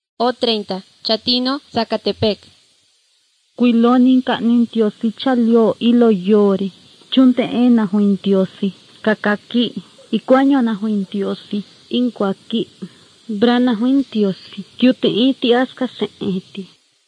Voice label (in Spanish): spanish mexico